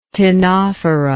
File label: "ctenophora", english